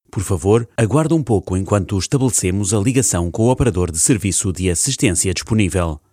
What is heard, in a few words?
Portuguese M IVR